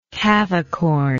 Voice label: "cavicorn", English